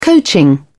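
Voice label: "coaching", English, British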